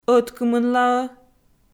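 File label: "ӧткымынлаӧ" Komi, female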